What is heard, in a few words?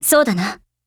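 AGREE, Japanese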